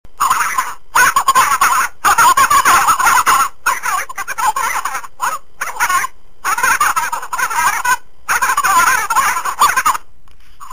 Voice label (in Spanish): voz al telefono